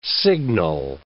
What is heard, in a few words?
"signal", English